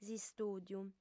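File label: "s'istùdiu", Sardinian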